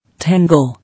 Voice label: "Tangle", English